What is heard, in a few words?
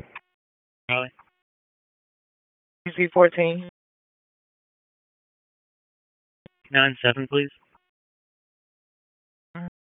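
Police Northeast District Dispatch; Raleigh; p25; wake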